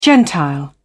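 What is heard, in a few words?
"gentile", British English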